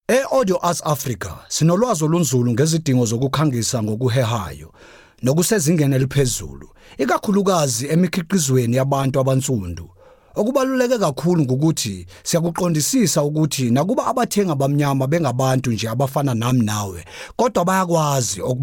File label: Zulu Corporate